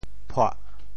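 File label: "phuah4", Teochew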